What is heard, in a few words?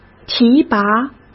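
"提拔", Mandarin Chinese; hsk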